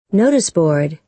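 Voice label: "noticeboard", American English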